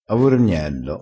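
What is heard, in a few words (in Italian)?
"avorgnello", dialettale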